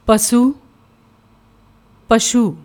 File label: "pasu", Punjabi